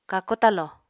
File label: "kako talo", Apatani